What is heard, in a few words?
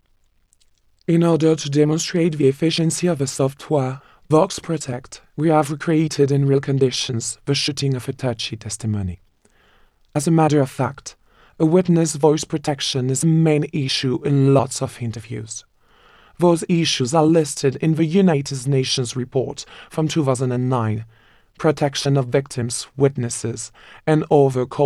EN WITNESS PITCHED; voxprotect